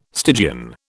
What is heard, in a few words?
"Stygian", British